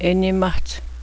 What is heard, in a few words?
crooked mouth, Cheyenne